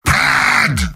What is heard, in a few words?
negative vocalization; heavy; video games; source engine; tf; mvm